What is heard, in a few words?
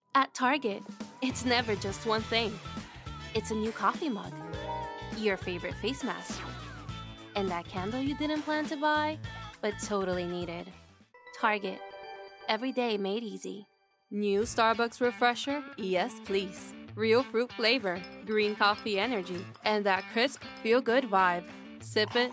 Commercial Professional IVR E-Learning